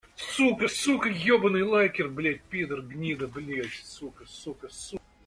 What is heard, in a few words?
rage